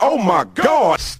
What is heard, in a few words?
"oh my gosh", g-rated swears; Voice; expletives